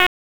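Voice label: Police District Tactical, p25; wake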